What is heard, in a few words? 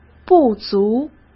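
"不足", Mandarin Chinese; chinese flashcards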